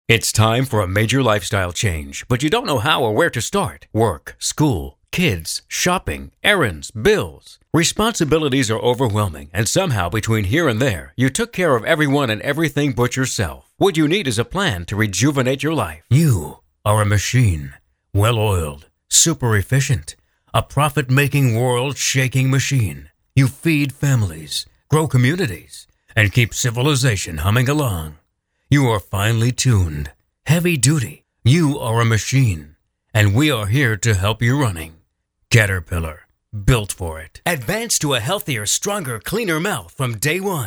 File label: Television